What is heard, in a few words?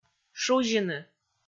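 "шузьыны", Komi, female; kpv audio dict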